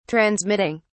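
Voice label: "transmitting", British English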